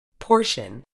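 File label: "portion", medical English, British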